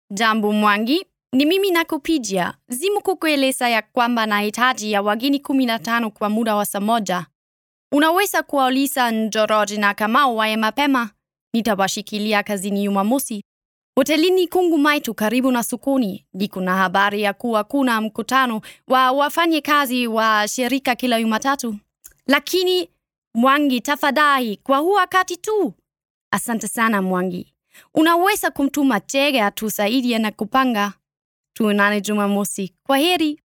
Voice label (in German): Suaheli